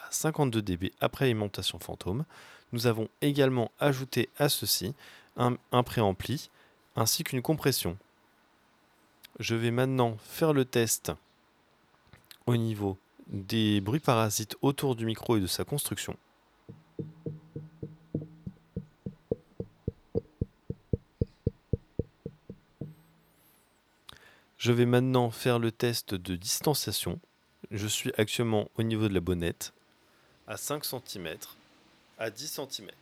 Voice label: maono pd4000X XLR; actugaming